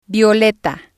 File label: "violeta", Spanish